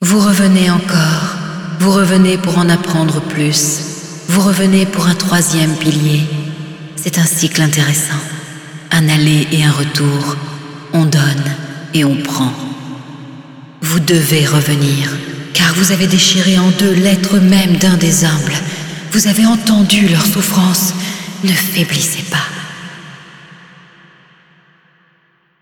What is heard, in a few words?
Speech fre; Yeesha